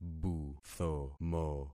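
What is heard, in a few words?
"buthomo", concatenated syllables; Affixing experiment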